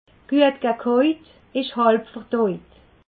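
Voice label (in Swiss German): "gekaut", Alsatian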